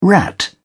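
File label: "rat", British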